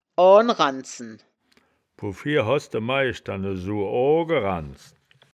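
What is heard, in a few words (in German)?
"oan ranndzen", wittlicher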